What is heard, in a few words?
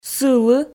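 "сылы", Komi, female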